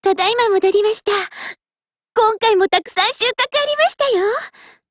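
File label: OPERATION OVER Japanese, Voice; GF Alarm; FN49 Mod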